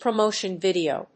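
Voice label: "promotion video", English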